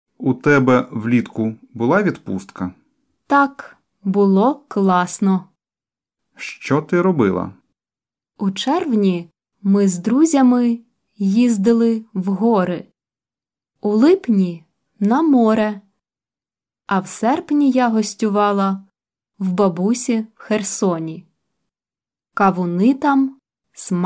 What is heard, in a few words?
Dialogue Slow